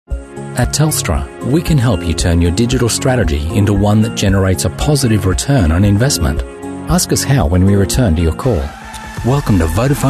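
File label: Australian, male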